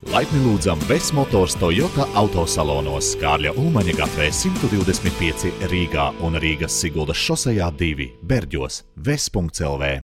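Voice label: Latvian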